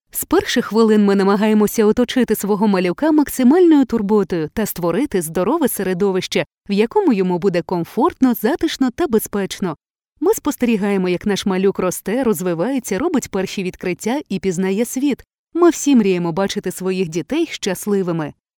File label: narrative Ukrainian